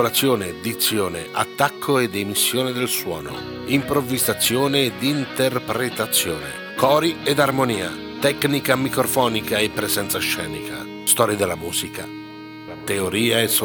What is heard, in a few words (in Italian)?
spot scuola di canto